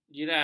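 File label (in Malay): "jiran", Malay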